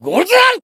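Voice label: Voice limit break, Japanese; cyrus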